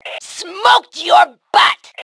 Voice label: Taunt Obnoxious, Female